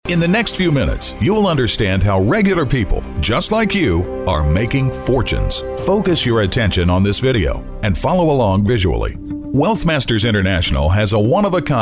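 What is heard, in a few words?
American English, male, explainer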